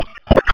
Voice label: Police Sheriff Dispatch